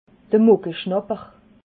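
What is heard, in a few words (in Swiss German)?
"muckeschnapper", Alsatian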